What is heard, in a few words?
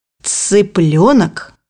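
"chicken", Russian; bukvar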